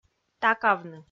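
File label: "такавны", Komi, female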